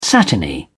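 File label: "satiny", British English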